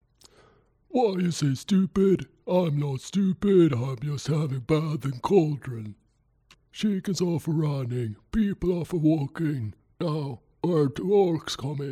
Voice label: ogre, unique voice auditions